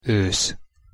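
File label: "osz", Hungarian pronunciation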